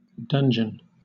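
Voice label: "dungeon", British English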